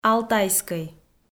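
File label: "алтайскӧй", Komi, female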